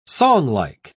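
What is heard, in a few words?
"songlike", British English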